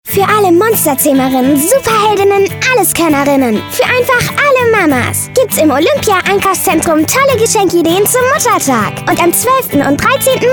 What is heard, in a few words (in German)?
Werbung Mix